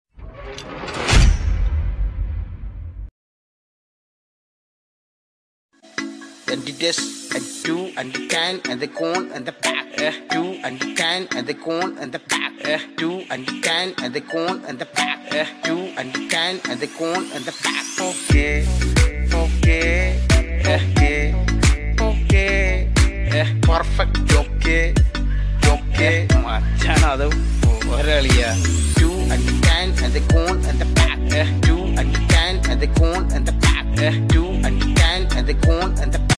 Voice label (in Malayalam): ok malayalam dialogue ringtone; perfect ok